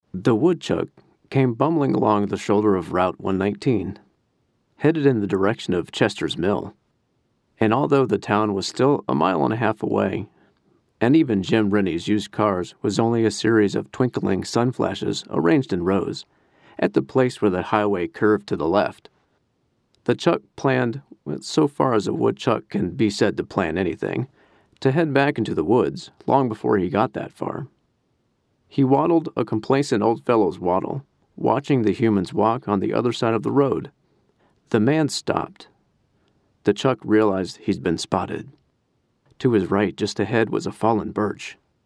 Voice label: audiobook